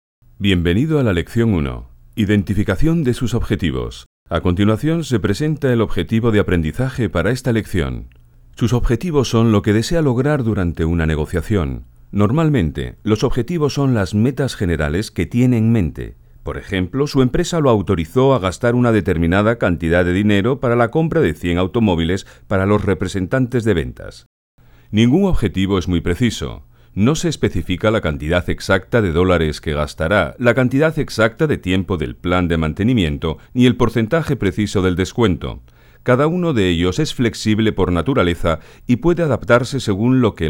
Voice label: castilian